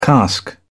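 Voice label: "cask", British English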